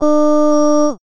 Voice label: child "O"